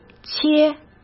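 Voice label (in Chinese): "切", Mandarin Chinese; hsk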